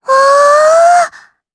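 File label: Vox Happy Japanese; Lilia; kings raid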